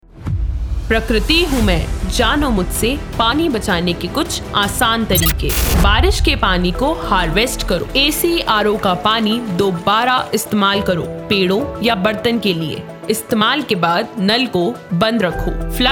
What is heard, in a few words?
mission life save water promo; radio4child awards 2024